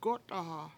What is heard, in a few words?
break it, Washo; gO7taha7